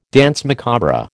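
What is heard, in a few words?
"danse macabre", American English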